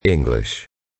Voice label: english